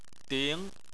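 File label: "ding" second tone, Chinese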